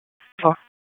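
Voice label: Police Northeast District Dispatch, p25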